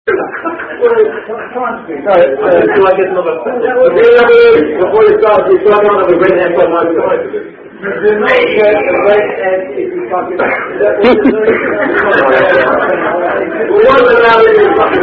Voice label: Cardinal Puff, Uk Are You A Cardinal Drinking Game